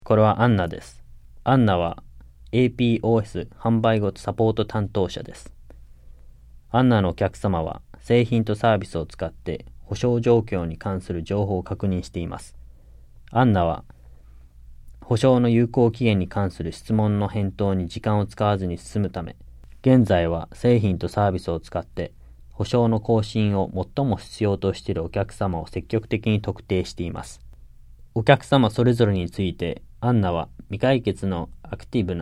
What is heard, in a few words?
JAPANESE; delltranslations